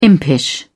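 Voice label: "impish", British English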